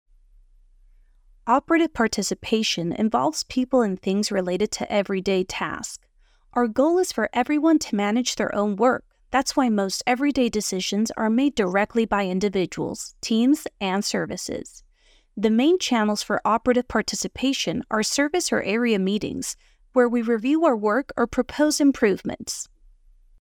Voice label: locutora norteamericana english american voiceover